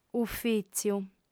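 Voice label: "ufìtziu", lingua sarda, female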